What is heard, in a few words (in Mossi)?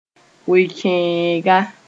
"we-kẽega", moore